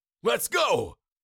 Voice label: Cartoon lion voice; lets go